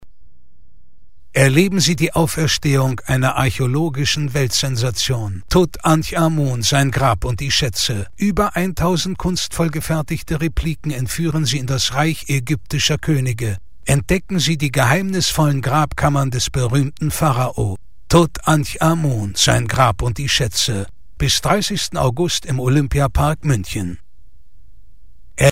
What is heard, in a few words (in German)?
W Fremdtake Dynamisch